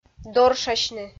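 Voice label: "доршасьны", Komi, female; kpv audio dict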